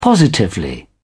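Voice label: "positively", British English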